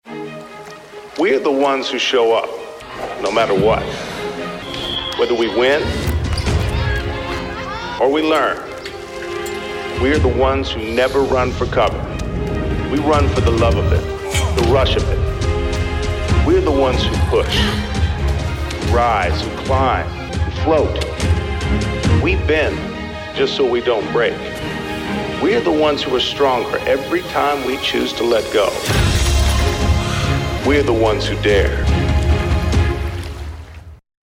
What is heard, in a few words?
Corporate